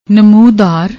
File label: "namoodaar", Punjabi; ਨਮੂਦਾਰ